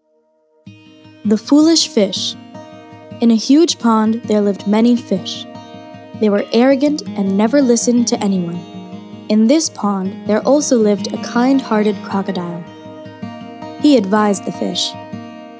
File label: Female Mellenial with Music